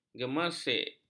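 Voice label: "gemeresik", Malay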